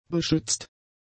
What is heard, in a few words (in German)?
"beschützt", German; adjectives